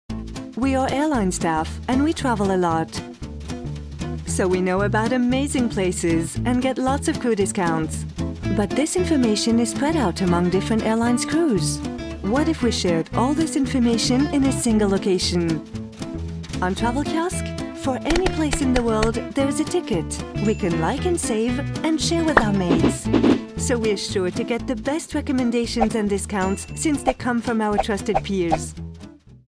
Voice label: ANG Fem Pub voix US

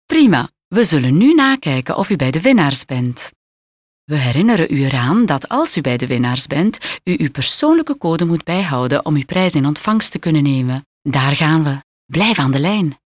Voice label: Voix flamande

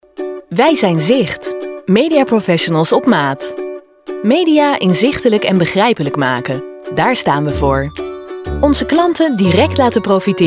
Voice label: Hollande Fem

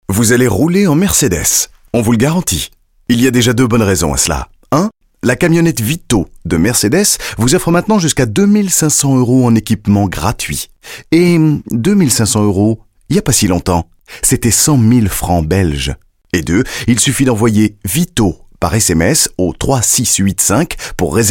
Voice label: Voix francophone

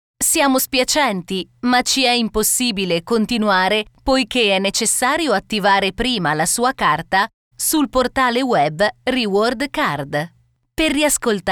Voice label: Voix italienne

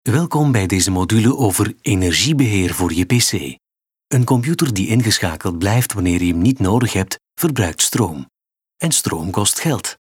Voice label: Voix flamande

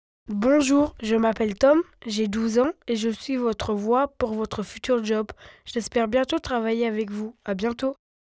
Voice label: FR ENFANT

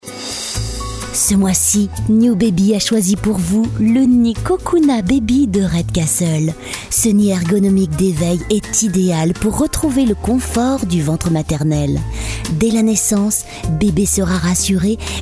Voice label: Voix francophone

